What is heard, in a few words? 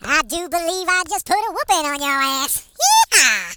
Firstblood, HillBilly; hedgewars